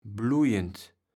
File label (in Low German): "blujend", kaevels platt